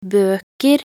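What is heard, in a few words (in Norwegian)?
"bøker", Norwegian; talkbook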